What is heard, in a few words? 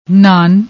"naan", Punjabi